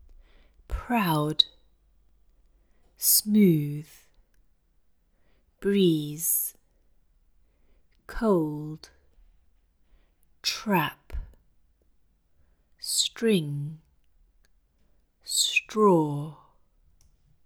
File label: Cantonese; Pronunciation Errors; Cantonese Speakers